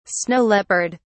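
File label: "snow leopard", British English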